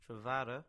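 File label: English; Tredavoe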